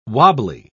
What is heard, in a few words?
"wobbly", pronounce